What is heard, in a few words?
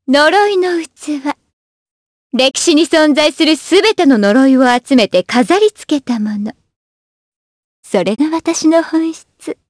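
vox dialogue, voices, Japanese; Shamilla; kingsraid audio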